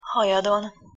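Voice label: "hajadon", Hungarian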